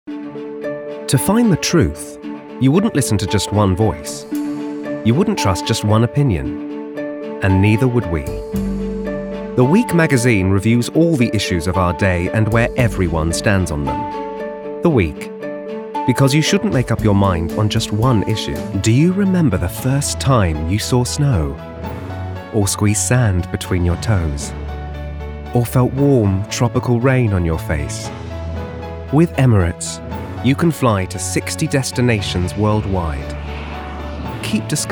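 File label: British English Commercial; GoLocalise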